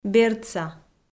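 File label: "бердса", Komi, female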